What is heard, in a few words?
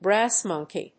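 "Brass Monkey", English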